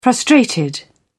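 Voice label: "frustrated", British English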